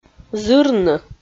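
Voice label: "зырны", Komi, female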